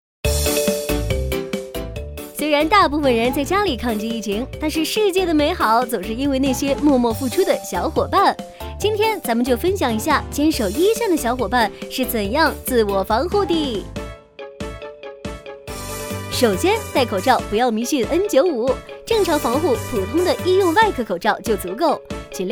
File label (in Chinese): 宣传片 疫情防护方法; 病毒; 飞碟说